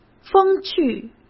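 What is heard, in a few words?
"风趣", Mandarin Chinese; chinese-flashcards; hsk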